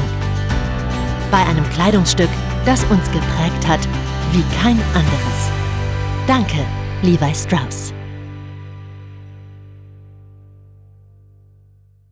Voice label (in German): Magazinbeitrag Jeans